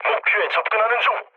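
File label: Korean near waypoint mood low; speech; War Thunder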